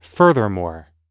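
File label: "furthermore", English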